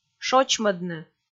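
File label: "шочмӧдны", Komi, female; kpv audio dict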